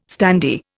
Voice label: "standee", Indian